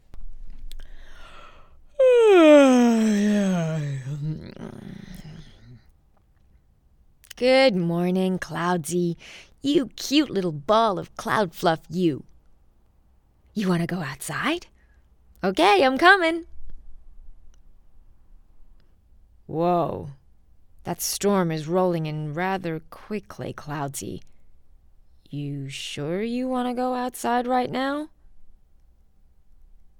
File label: gaming voice over